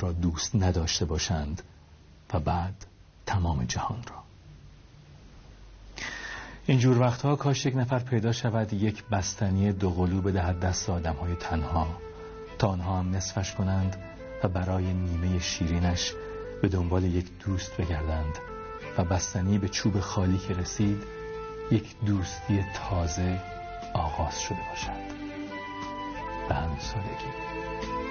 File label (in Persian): دکلمه تنهایی